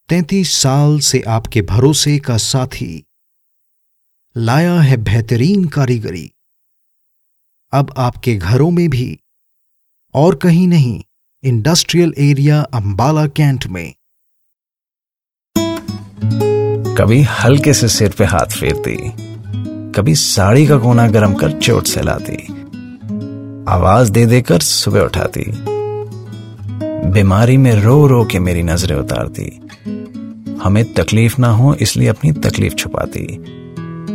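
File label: Hindi, General; GoLocalise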